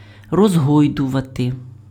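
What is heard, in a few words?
"розгойдувати", Ukrainian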